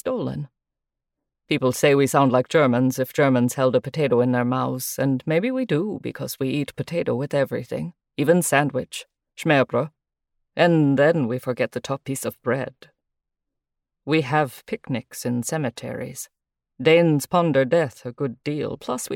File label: Danish Sample